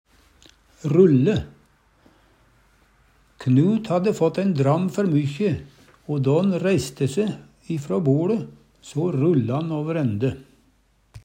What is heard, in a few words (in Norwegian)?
rulle, Numedalsmål